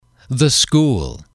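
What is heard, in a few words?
"school", English, male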